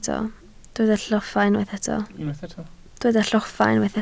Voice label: "lloffa", Welsh